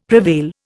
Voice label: "prevail", Indian; Dictionary

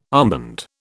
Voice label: "armband", British

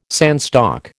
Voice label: "sandstock", American

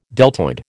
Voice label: "deltoid", American

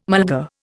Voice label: "mulga", Indian

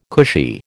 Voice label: "cushy", American

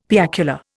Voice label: "piacular", Indian; Dictionary

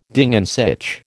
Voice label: "Ding an sich", American

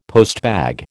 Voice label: "postbag", American

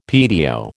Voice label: "PTO", American

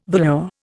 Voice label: "velour", Indian

